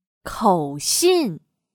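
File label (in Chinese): "口信" Mandarin Chinese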